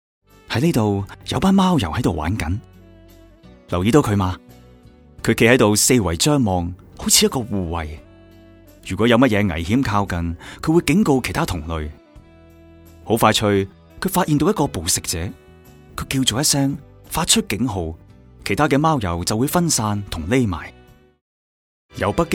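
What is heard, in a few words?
Cantonese narration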